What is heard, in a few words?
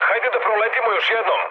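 Serbian bomb failure mood med, speech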